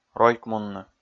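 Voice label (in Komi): "ройкмунны", Komi, male; kpv audio dict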